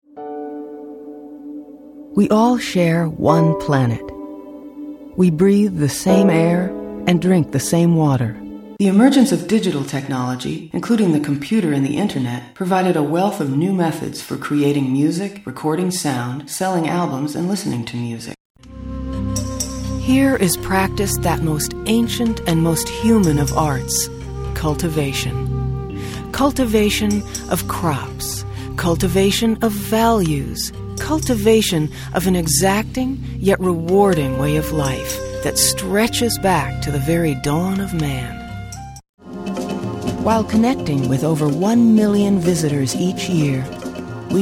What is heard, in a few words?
narration, women